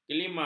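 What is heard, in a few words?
"kelima", Malay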